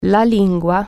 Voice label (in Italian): "tongue", Italian